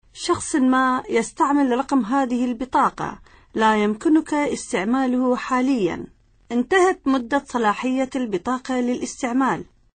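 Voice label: Arabic, female, various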